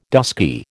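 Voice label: "dusky", American English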